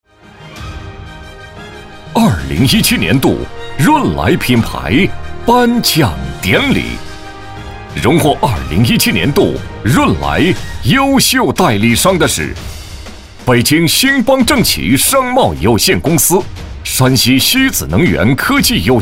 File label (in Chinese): 男 颁奖 名单宣读 激情快速